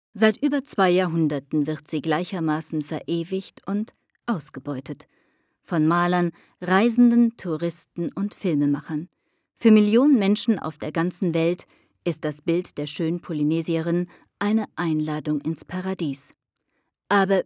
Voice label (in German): doku